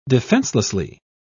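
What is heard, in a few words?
"defenselessly", British English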